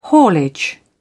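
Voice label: "haulage", English, uk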